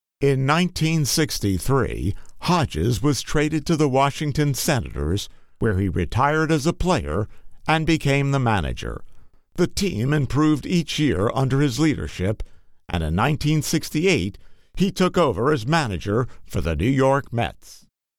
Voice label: Docu; Gil Hodges